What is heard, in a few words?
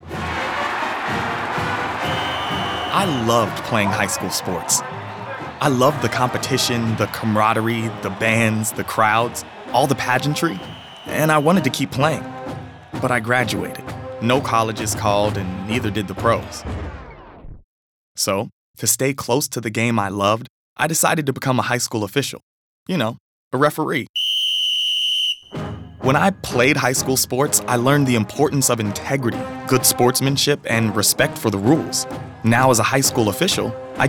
audio spot